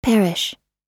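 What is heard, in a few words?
"perish", American English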